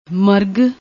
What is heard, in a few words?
"marag", Punjabi